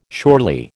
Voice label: "surely", American

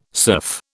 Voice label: "surf", British

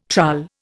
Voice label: "trull", Indian

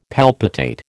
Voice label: "palpitate", American

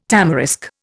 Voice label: "tamarisk", Indian

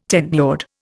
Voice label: "tenured", Indian

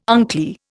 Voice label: "unclear", Indian

